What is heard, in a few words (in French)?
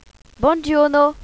"buongiorno", Italie; Rome